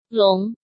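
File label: "Lóng", Chinese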